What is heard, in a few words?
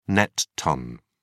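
"net ton", British English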